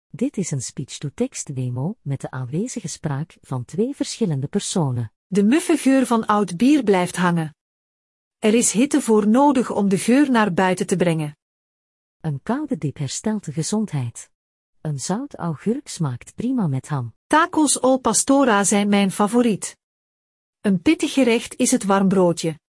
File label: audio dutch